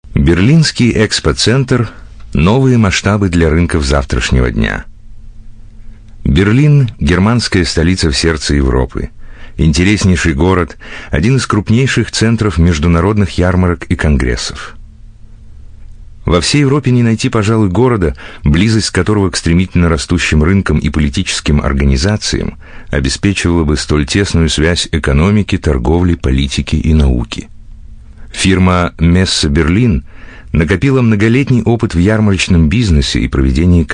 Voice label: Corporate Russian, Russian Male

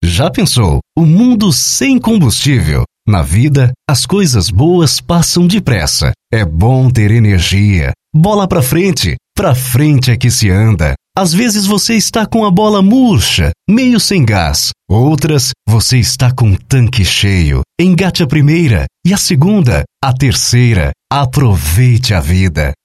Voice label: Brazilian Portuguese Male

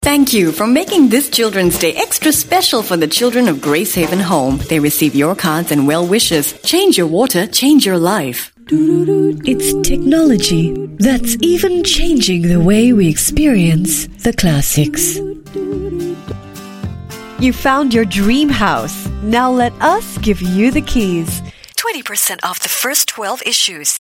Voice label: Asian English, English Singaporean Female

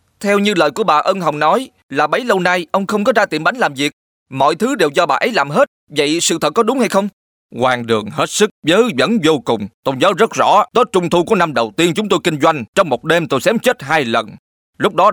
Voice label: Vietnamese Male South Film Dubbing

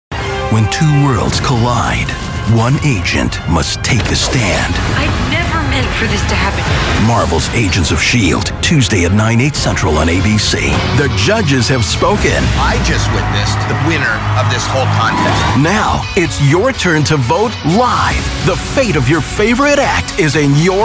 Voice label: US English Promos, Male

